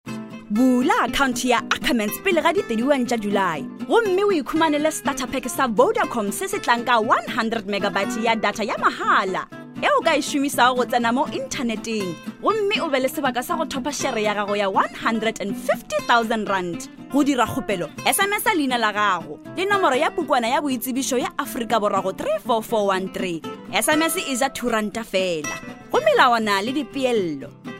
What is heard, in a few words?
Northern Sotho